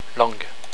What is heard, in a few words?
"langue", french; la/une langue